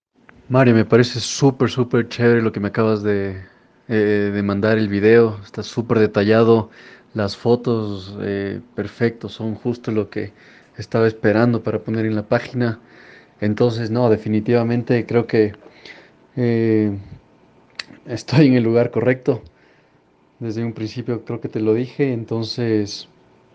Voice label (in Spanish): TESTIMONIO; WORLD PASS ENGLISH; ANTES DESPUES